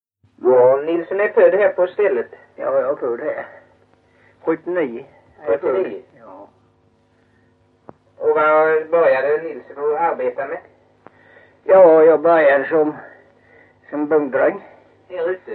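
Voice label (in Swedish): Mjällby Blekinge, Svenska sagor dialekter; Folkminnen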